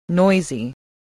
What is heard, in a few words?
"noisy", English